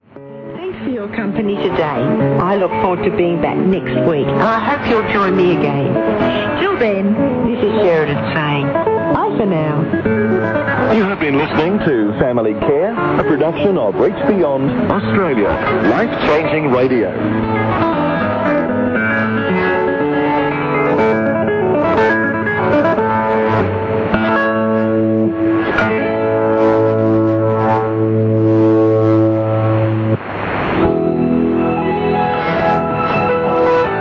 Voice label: 15460 kHz, inglese; Reach Beyond Australia - HCJB; Degen DE 1103; filo 5m indoor

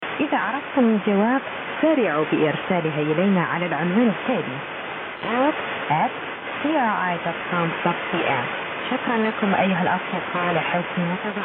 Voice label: arabo; CRI

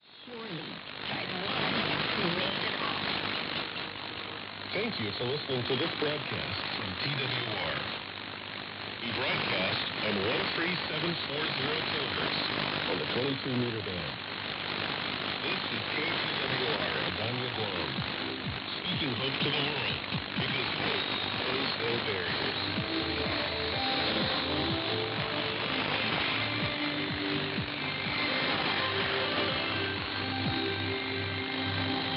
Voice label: 13740 kHz, Inglese; TWR Guam; Perseus; Schwarzsee

